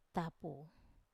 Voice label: "tapu", Wamesa

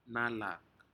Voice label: "nalak", Aneityum; shellfish

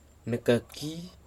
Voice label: "nɨkaki-", Nafe (Kwamera)

